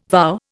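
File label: "vow", Indian English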